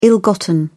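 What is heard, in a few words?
"ill-gotten", English, British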